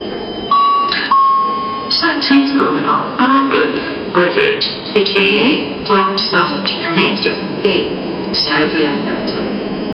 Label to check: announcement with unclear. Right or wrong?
Right